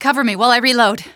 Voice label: cover while reload, female; Half-Life 2

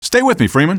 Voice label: follow, male; coast; odessa; stairman

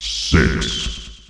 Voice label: "six", announcer male; Unreal Tournament; ut2004

